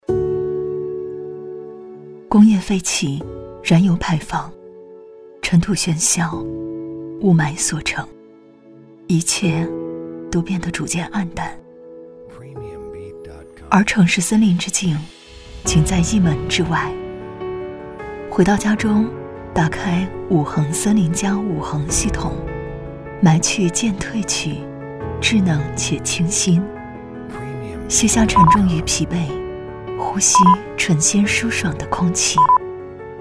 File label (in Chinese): 女 广告; 五恒森林家